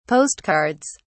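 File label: "postcards", British English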